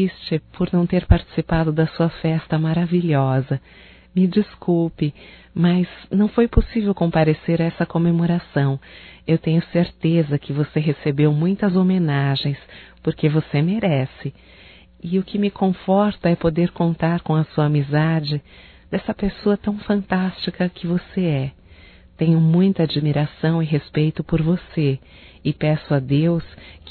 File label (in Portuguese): Aniversário Feminino, Telemensagens; Aniversário Atrasado Amigo Feminino